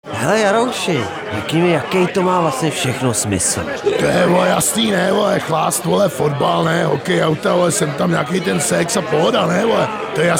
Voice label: Radio spot